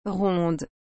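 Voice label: "ronde", French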